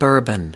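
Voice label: "bourbon", US English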